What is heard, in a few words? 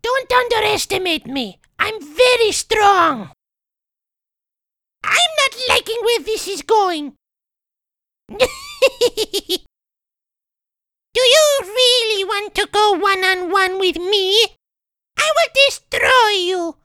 Character Voice; UT4